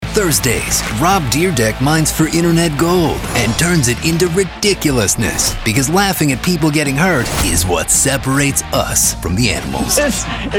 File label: Promos